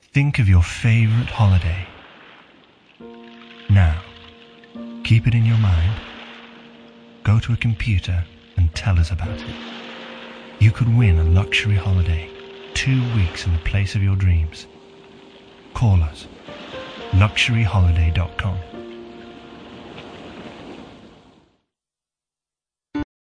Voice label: Calm, Natural, Warm